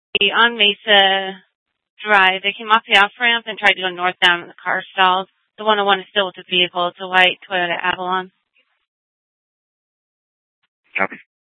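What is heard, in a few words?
police dispatch, scanner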